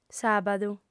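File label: "sàbadu", female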